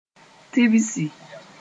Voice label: "tibsi", Moore